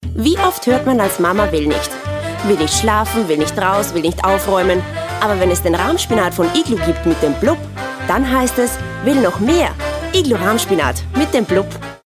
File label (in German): Werbung; Iglo